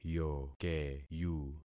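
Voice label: "yokeyu", concatenated syllables; Affixing experiment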